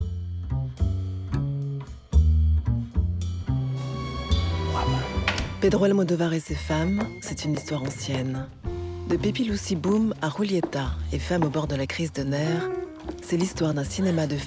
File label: Narration; Almodovar